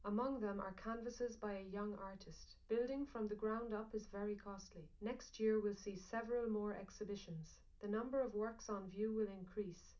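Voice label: female, live recording, 250 ms, 1 m; SiSEC11; underdetermined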